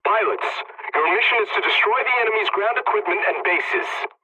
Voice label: mission start, english; War Thunder

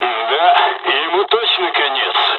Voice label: dialog, dialogs russian; us midway; War Thunder

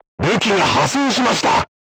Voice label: voice message weapon damaged, crew tanks japanese

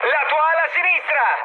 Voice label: left wing mood high, speech it; War Thunder

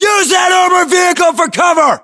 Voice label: order cover armored vehicle, US

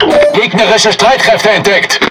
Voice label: Speech Detection Enemy Any Ship, DE; World Of Warships

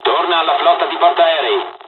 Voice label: evac, dialogs italian; War Thunder

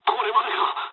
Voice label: aircraft destroyed mood low, speech jp; War Thunder

